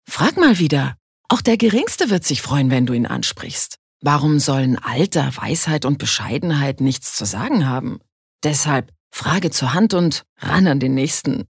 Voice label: Werbung Neutral

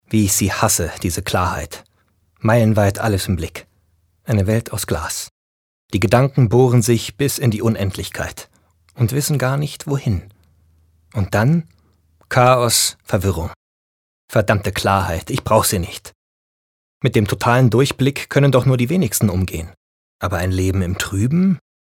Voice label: Schauspiel, Energisch

